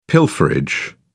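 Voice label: "pilferage", British English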